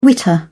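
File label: "witter", English, British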